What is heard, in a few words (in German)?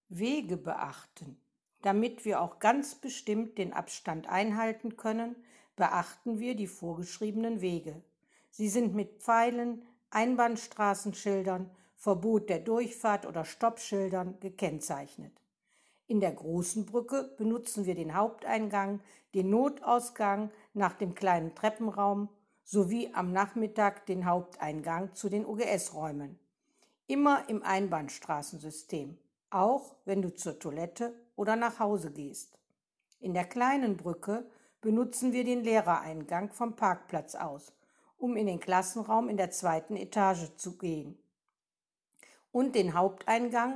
Deutsch